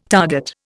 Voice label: "target", Indian